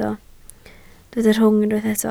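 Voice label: "rhwng" Welsh, spoken word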